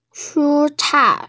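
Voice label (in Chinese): "shu1cai4", Mandarin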